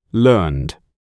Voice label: "learned", English